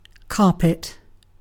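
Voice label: "carpet", British English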